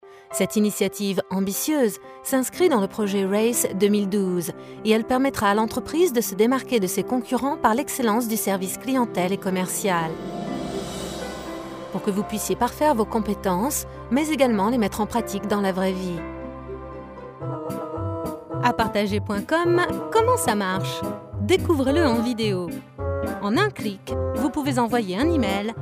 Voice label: French; foreign languages

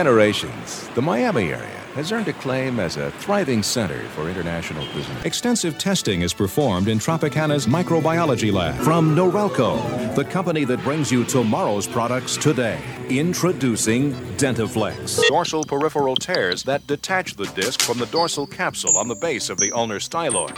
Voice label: narr, male voice talent

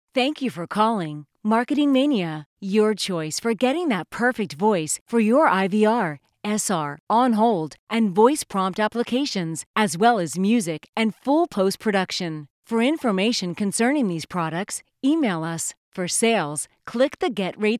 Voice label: Voice Sample, female voice talent

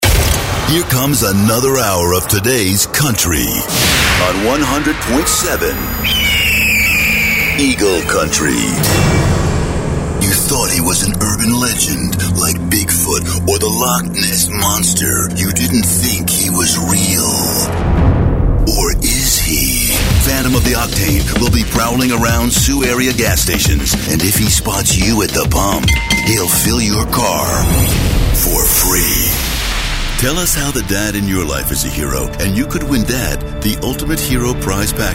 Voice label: male voice talent Radio Imaging

